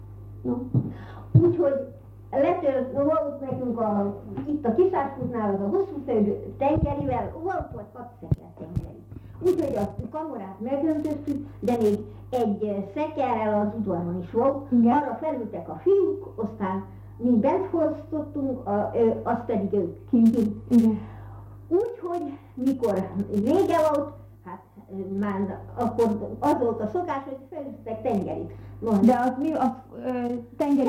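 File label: dialect collections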